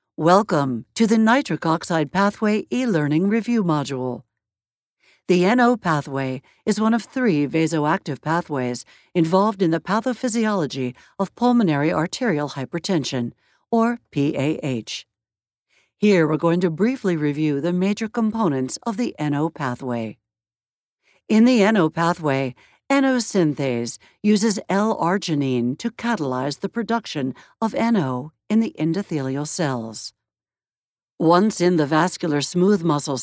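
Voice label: Medical Technical, Audiobook Narration; Lucy McGee / Medical Technical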